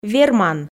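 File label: "верман", Komi, female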